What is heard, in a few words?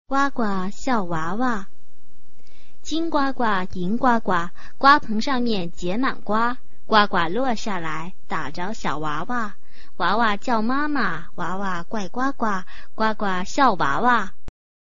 chinese tongue twister